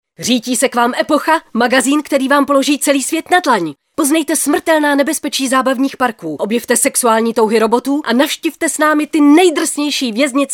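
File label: female Czech commercial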